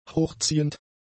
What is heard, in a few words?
"hochziehend", German; adjectives